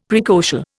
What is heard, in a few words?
"praecocial", Indian; Dictionary